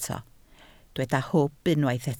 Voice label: "hwb", Welsh